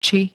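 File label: "či", Slovak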